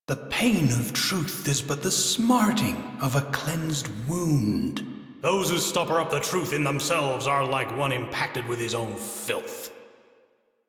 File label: Crew Idle, Male; Dialog; en; Warframe; Railjack Crew; Arbiters Hexis